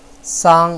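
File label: "sang1", Chinese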